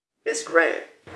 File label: Voice Acting, es